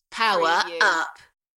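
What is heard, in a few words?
UK female, DJ vocals; beanstalk-audio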